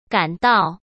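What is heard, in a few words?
"赶到", Mandarin Chinese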